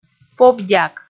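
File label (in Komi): "поп-дяк", Komi, female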